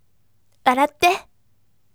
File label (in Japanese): voice waratte akarume